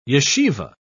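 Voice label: "yeshiva", British English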